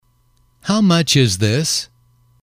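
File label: "how much is this", English, male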